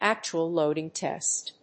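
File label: "actual loading test", English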